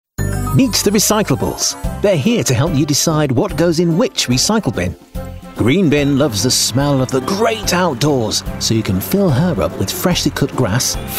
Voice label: narrative voice